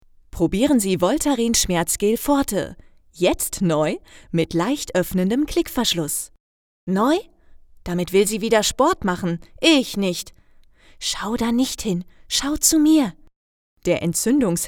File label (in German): sprecherin; voltaren